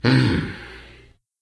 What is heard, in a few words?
NPC Death Knight Male Med Greeting